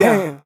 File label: "damn", expletives